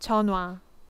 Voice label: telephone, korean